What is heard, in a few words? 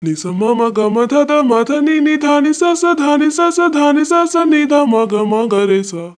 voice, pitchshift -5; usb uvi workstation